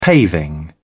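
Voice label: "paving", British English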